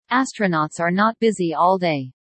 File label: Zoe fast